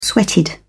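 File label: "sweated", British English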